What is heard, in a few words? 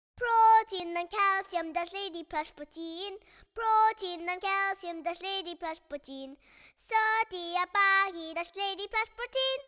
BM COLD VOX